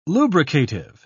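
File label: "lubricative", British English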